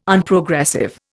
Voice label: "Unprogressive", Indian